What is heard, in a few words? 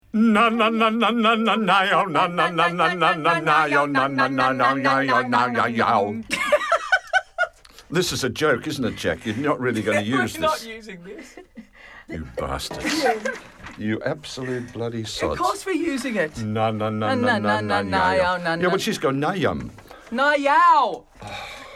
Banter